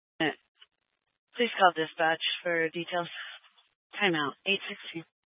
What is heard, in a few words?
Fire Department Dispatch